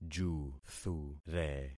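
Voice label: "juthure", concatenated syllables; Affixing experiment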